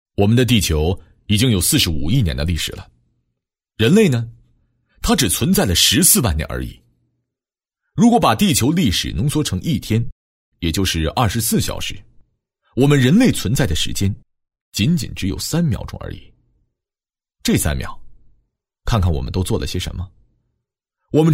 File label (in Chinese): 男国 其他 旁白 素人口语化